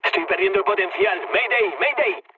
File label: damaged engine, mood low, speech; War Thunder